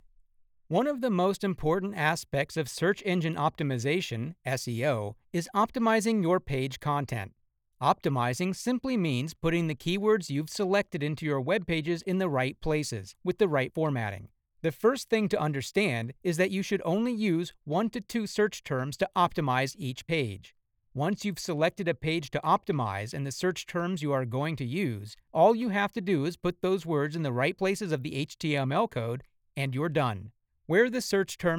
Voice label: eLearning